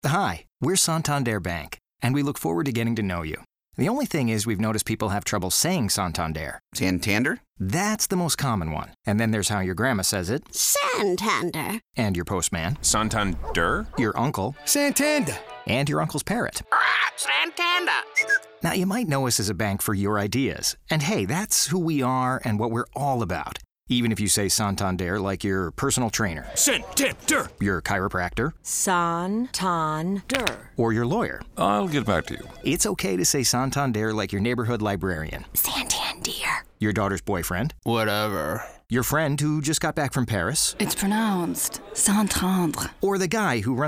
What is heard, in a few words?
Radio; Santander